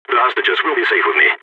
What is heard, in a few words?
guarding hostages, balkan; Counter-Strike Global Offensive